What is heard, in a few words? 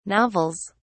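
"novels", British English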